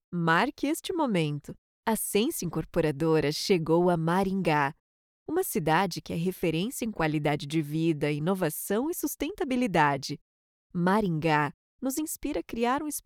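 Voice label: Portuguese, Brazil, female, voice over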